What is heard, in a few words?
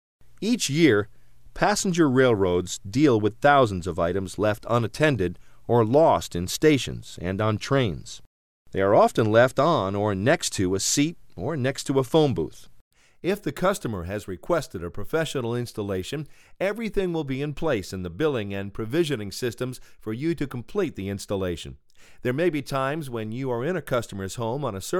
Corporate Training